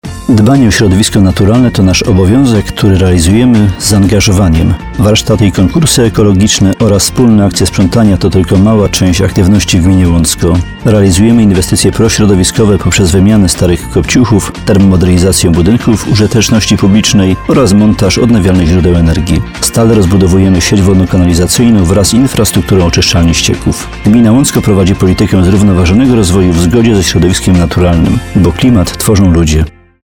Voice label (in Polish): Spot radiowy